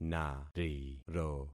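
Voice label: "nariro", concatenated syllables; Affixing experiment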